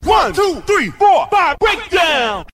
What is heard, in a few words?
"1 2 3 4 5" break down; Voice; numbers and letters